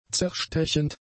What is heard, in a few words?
"zerstechend", German; adjectives